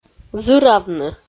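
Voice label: "зыравны", Komi-Zyrian, female; audio dict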